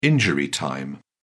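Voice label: "injury time", British English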